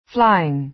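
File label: "flying", American English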